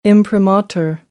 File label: "imprimatur", American; ɚ - əɹ